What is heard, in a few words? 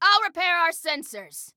Self Repair Sensors, Female